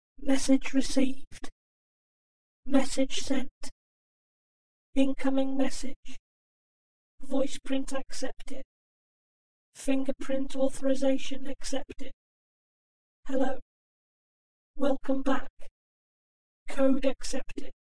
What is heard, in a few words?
Female Robot Voice